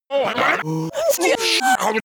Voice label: glitch talk fx

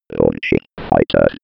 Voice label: computer voice

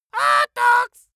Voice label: dwarf say hot dogs

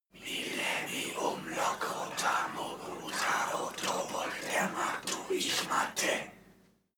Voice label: evoke whisper

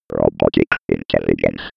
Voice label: 90s computer voice

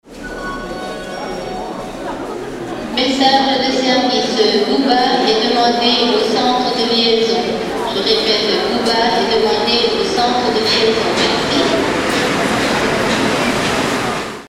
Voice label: subway announce paris

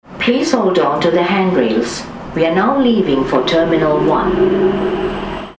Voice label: airport shuttle computervoice english

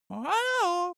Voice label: cartoon male greet short

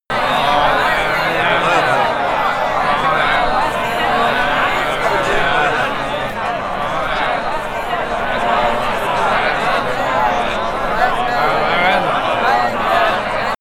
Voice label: grumpy

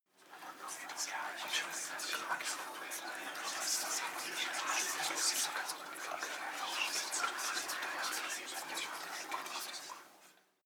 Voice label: whisper german neutral short

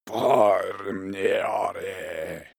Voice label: male curse evil

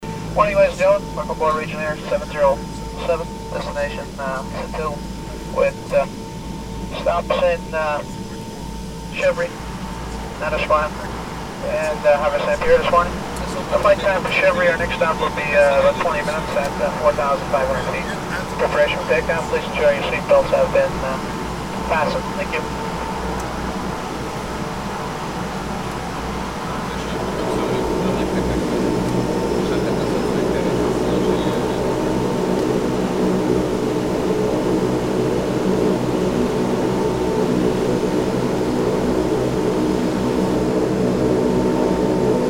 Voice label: aircraft radio message

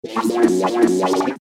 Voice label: alien speech

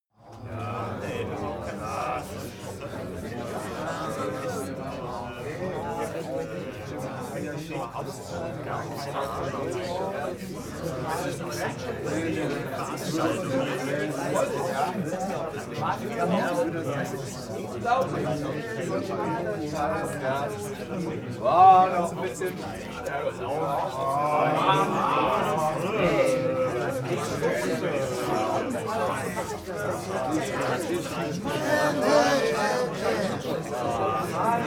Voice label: talk upset

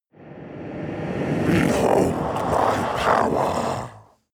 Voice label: demon dark voice reverse behold my power

